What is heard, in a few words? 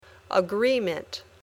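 "agreement", English word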